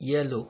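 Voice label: "yellow", Nigerian Pidgin, male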